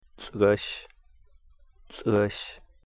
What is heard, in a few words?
"lh'ghish", rattlesnake, Cahto